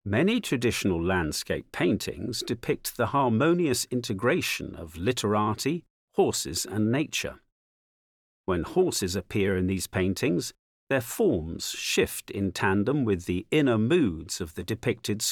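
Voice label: English, audio guide; Landscape; Wen Jia (1501–1583); Ming dynasty, mid- to late 16th century; Heavenly Horse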